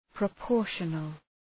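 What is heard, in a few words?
"proportional", English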